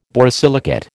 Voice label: "borosilicate", American

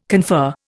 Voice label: "confer", Indian

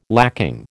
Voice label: "lacking", American

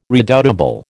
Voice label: "redoubtable", American

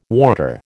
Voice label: "warder", American

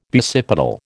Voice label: "basipetal", American

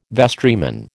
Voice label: "vestryman", American

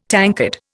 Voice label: "tankard", Indian

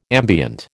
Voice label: "ambient", American

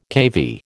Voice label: "keV", American

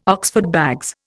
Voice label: "Oxford bags", Indian

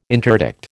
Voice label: "interdict", American

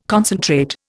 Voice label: "concentrate", Indian

